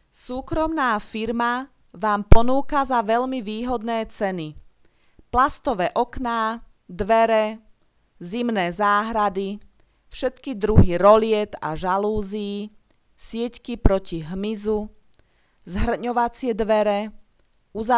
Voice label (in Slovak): komercne hlasenie; zaluzie